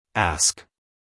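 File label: "ask", American English, male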